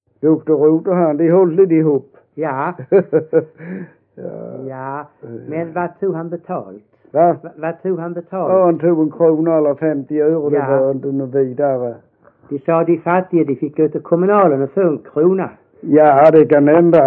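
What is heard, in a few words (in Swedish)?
Vollsjö Skåne, dialekter; Folkminnen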